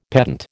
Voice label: "pedant", American